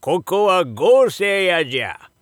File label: shop oldman; gousei